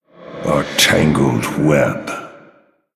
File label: Male Night Elf Thinking